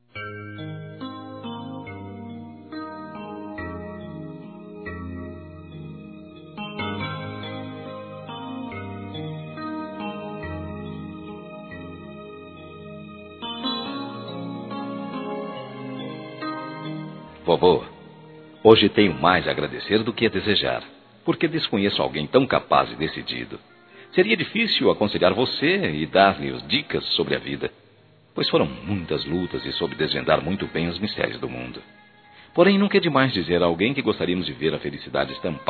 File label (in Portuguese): Aniversário Avô Masculino